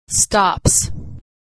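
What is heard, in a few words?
"stops", American English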